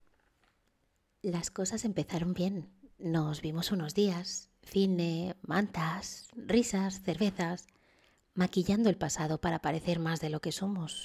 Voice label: AUDIOBOOK, Spanish, female